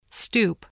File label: "stoop", voice